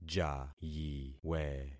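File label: "jayiwe", concatenated syllables; Affixing experiment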